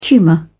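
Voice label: "tumour", English, British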